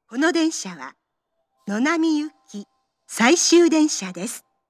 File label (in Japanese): 到着-野並行き（最終）, 名古屋市営地下鉄 桜通線 丸の内駅 駅放送